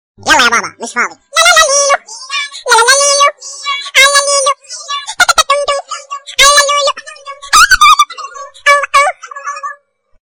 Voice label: crazy voice tone; minions